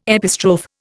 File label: "epistrophe", Indian